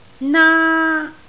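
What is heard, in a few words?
"naa1", Cantonese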